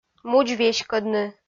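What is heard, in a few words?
"мудз веськӧдны" Komi, female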